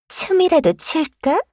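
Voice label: acceleration Line, Voice Line, Korean; Eternal Return; Garnet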